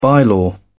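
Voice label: "bylaw", English, British English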